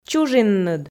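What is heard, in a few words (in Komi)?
"чужинныд", Komi, female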